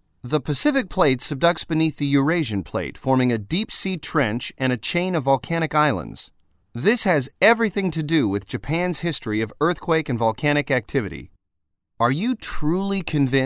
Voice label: Educational Narration